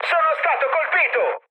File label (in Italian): aircraft damaged, mood high, speech it